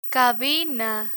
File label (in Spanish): "cabina", Spanish; airport vocabulary